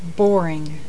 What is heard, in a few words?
boring